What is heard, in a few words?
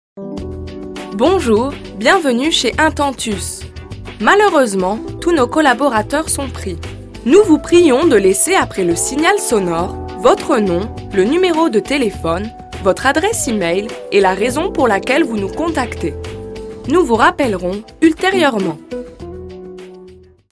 fr